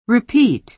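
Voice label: "repeat", American English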